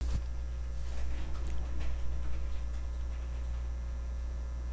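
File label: "can", spoken word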